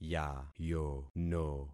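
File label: "yayono", concatenated syllables; Affixing experiment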